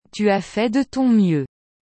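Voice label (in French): "Tu as fait de ton mieux", French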